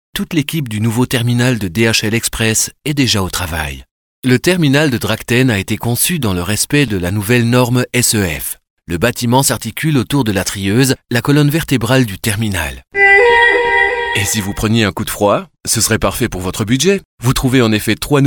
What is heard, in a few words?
french male